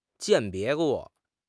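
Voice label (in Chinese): "鉴别", Mandarin Chinese; HSK6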